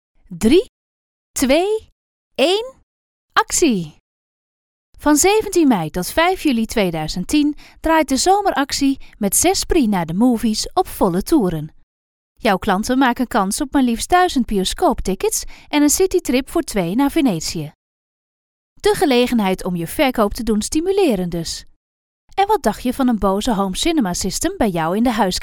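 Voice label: comercial, Dutch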